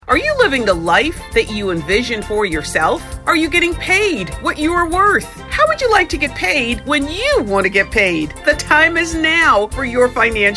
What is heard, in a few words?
Radio